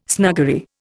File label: "snuggery", Indian